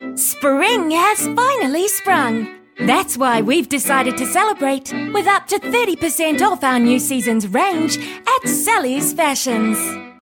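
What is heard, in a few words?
English New Zealand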